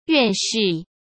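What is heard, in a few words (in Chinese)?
"院士", Mandarin Chinese; chinese-flashcards; hsk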